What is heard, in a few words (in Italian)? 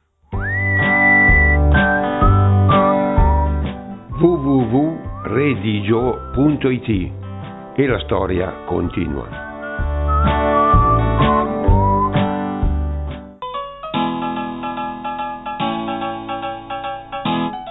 tiretere dialetto